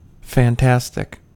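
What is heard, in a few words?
"fantastic", US English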